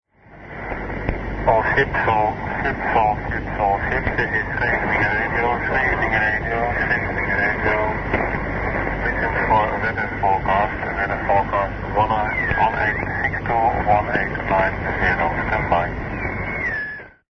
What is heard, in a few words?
scheveningen radio 2182 khz; pch